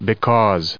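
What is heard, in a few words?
"because", English, us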